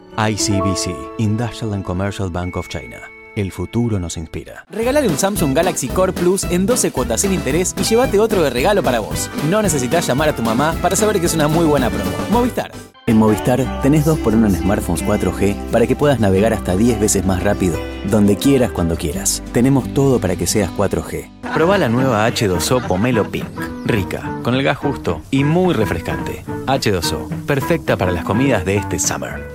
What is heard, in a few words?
Spanish